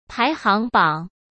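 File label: "排行榜" Mandarin Chinese; chinese flashcards; hsk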